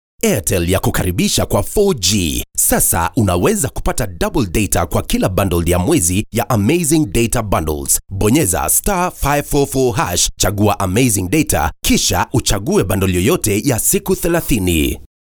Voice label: Swahili IVR

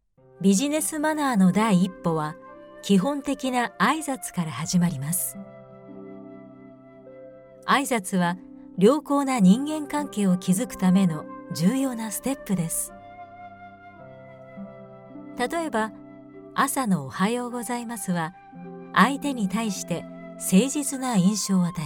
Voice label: japanese e-learning

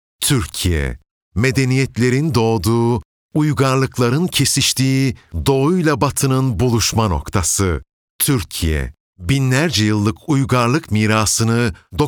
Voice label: turkish narration

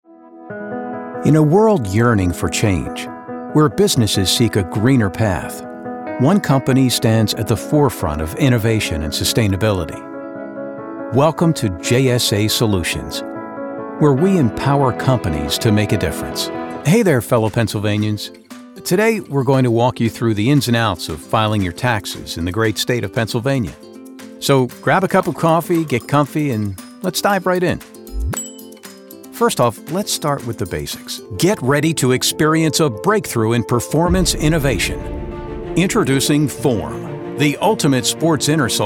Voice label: english american corporate videos

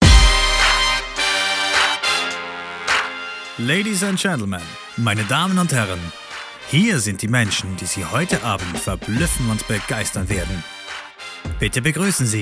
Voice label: german announcements

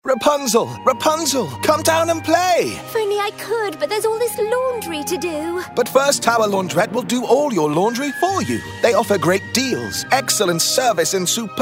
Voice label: english british; Anglais (britannique); Royaume-Uni

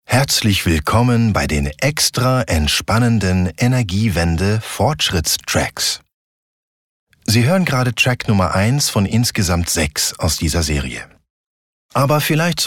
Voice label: german podcasts; Deutschsprecher aus Berlin

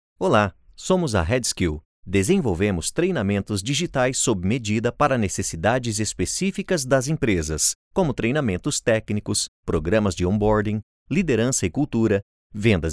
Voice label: portuguese brazilian e-learning

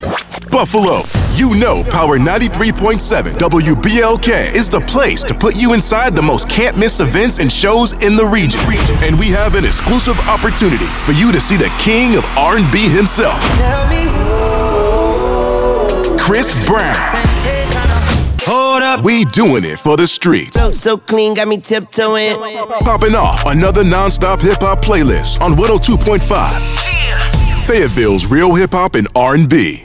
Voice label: english american radio imaging

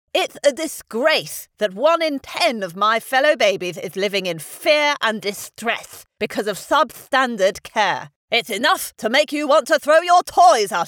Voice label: english british animation

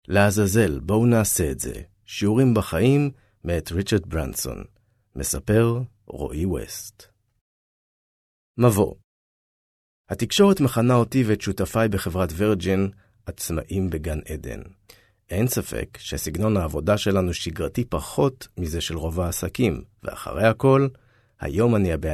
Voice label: hebrew audiobooks

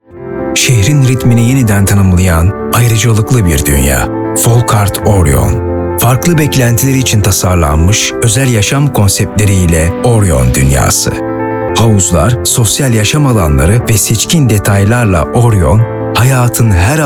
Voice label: turkish real estate

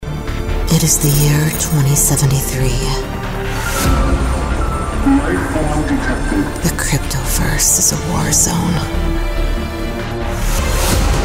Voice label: english american movie trailers

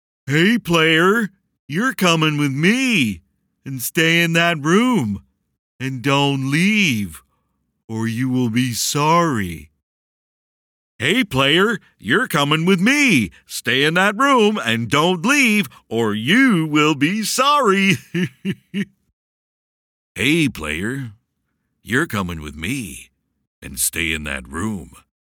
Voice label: english canadian video games

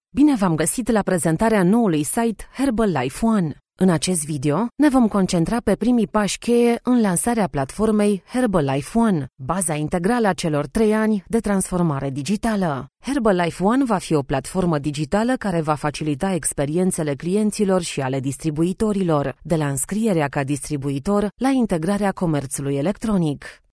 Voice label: romanian medical narration

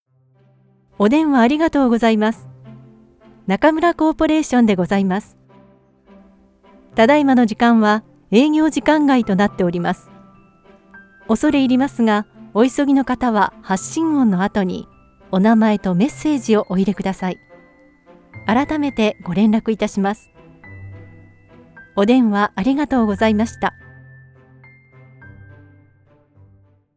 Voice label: japanese ivr

